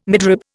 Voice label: "midrib", Indian

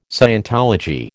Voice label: "scientology", American

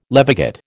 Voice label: "levigate", American

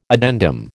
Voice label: "addendum", American

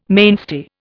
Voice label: "mainstay", Indian

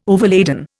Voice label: "overladen", Indian

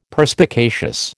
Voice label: "Perspicacious", American

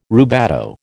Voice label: "rubato", American

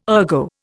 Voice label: "ergo", Indian

